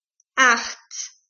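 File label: "acht", German, eight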